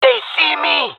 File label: taking fire, jungle male; vo; agents; Source Model Packs; Counter Strike Model Pack; CS2